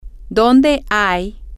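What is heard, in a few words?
where Is, Spanish, female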